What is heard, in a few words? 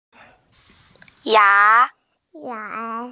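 "ya2", Mandarin Chinese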